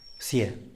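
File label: "psie", Tlacochahuaya Zapotec; eagle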